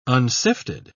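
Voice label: "unsifted", British English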